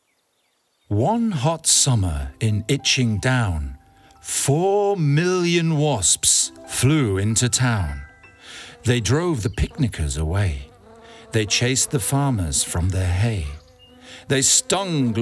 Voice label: male english northern accent narration; the giant jam sandwich; Devine Voices